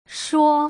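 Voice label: "shou", Putonghua; shuo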